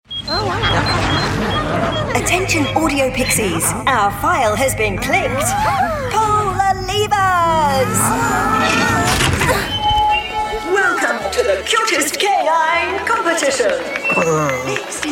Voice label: animation